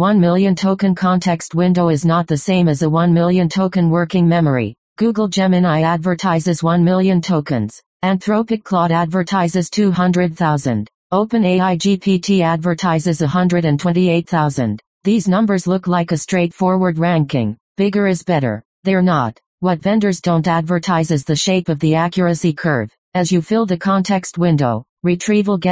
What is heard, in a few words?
voiceover